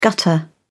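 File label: "gutter", British English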